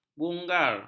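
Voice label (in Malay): "bungar", Malay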